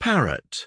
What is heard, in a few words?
"parrot", English